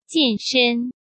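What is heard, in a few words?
"健身", Mandarin Chinese; hsk; chinese-flashcards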